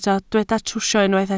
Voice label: "trwsio", Welsh